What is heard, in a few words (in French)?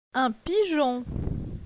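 "Un pigeon", French; phonetique; la graphie gn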